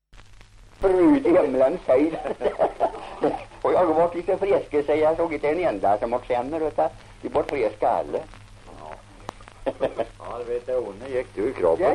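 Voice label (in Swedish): Järnskog Värmland, Svenska sagor, dialekter; Folkminnen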